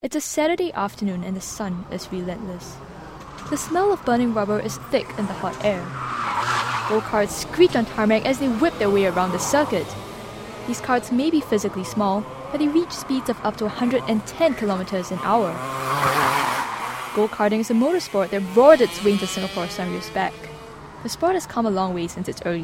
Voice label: Radio Sports Reporting